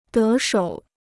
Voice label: "得手", Chinese